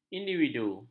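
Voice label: "individu", Malay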